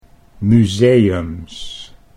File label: "museums", Dutch